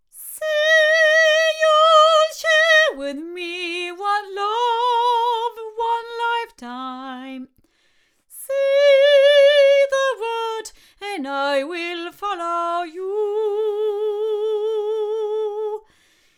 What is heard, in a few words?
Generis GT2 Mk2 8 female